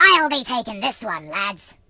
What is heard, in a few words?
This one is mine, voices, Pirate; hedgewars